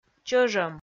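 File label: "чӧжӧм", Komi, female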